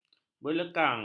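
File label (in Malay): "berlekang", Malay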